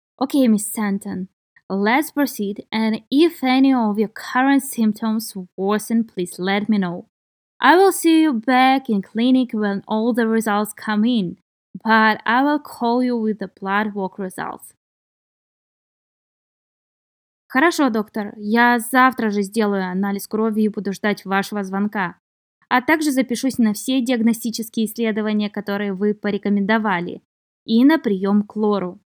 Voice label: Medical Certified Oral Russian Practice